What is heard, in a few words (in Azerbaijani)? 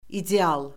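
"ideal", Azerbaijani; Orfoqrafiya Lüğəti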